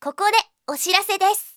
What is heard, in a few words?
message, Anime Girls; Japan; Windows; Bing; Nanako